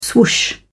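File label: "swoosh", British English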